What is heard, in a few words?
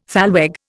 "thalweg", Indian